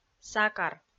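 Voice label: "сакар", Komi, female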